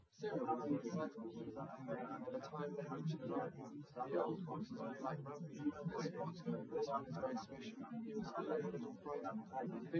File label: male live rec; SiSEC08; SiSEC underdetermined